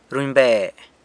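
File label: "runybe" knows, San Lucas Quiaviní Zapotec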